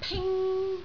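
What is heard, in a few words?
"ping1", Cantonese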